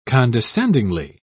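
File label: "condescendingly", British English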